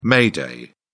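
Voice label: "may day", British English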